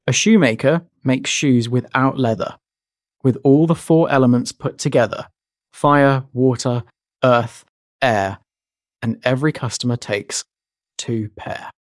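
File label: male British; A Shoemaker Makes Shoes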